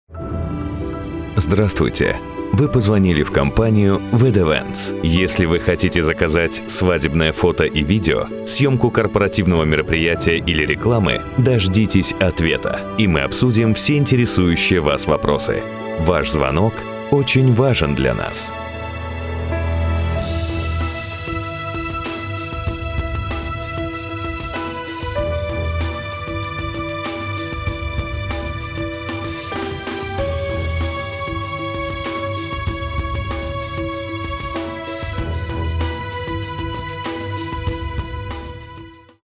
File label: IVR; wedewents